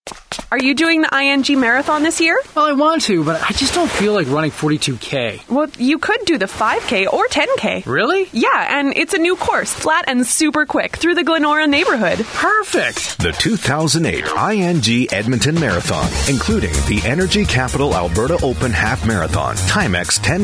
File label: Commercial